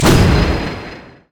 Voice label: battlecry; Xin Zhao; zhaoyun

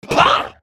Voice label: effort

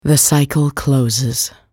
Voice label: attack, en US; Anivia

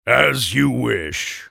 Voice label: move, en US; TryndamereSultan

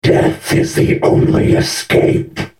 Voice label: attack, en US; Urgot

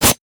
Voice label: sad mummy tantrum oh; LoL; amumu

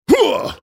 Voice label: effort, en US; Udyr

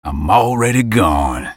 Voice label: ultimate effort, en US; Twisted Fate

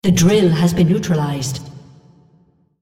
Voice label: neutralized female; Odin; Drill

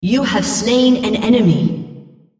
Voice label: female On Champion Kill You Hero

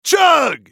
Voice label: spell cast effort; Brolaf; Character Voices - English

